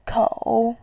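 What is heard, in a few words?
"kou3", Mandarin Chinese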